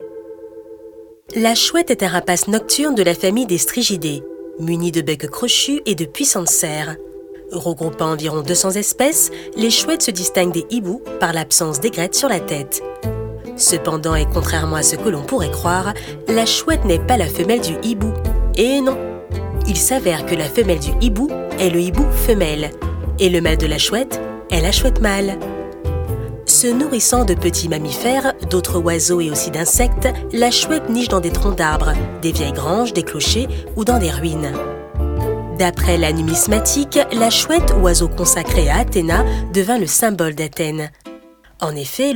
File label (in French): TON DE NARRATION DOCUMENTAIRE ANIMALIER; LA CHOUETTE